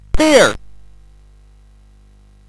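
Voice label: Bouncy Balls speech, MOS 8580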